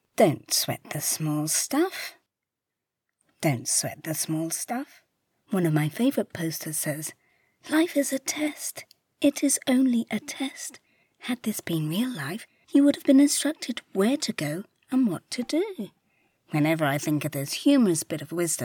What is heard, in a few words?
infomercial